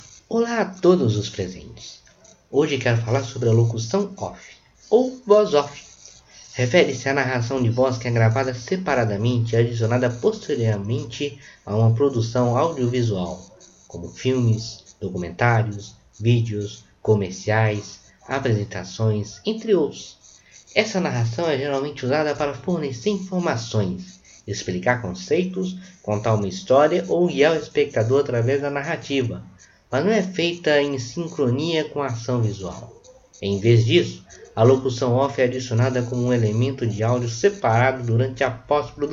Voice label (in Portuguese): Locucao OFF